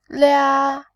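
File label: "lia", Mandarin Chinese, first tone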